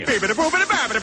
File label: sicilian italian speakin tone